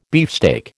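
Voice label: "beefsteak", American English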